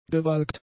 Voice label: "gewalkt", German; adjectives